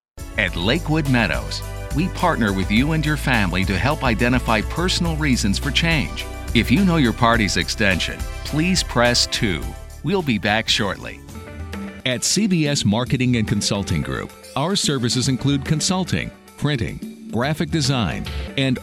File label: On Hold IVR